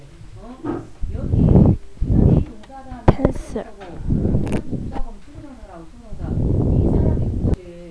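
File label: "pencil", spoken word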